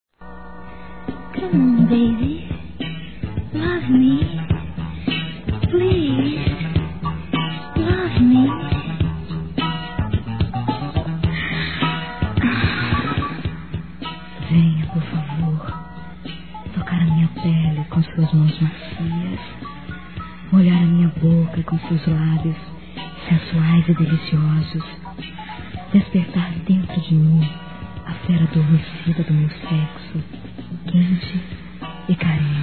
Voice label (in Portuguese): Venha Por Favor F, Sensuais, fem; Telemensagens